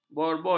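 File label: "bourbon", Malay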